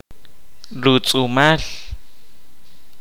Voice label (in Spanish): "piel humana", Kaqchikel